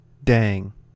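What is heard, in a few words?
"dang", US English; pronunciations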